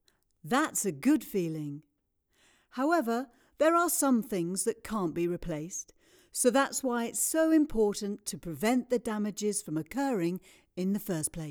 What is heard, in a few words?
E-Learning Home Insurance